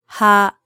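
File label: "ha", Japanese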